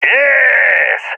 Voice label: cheer, balkan; vo; balkan epic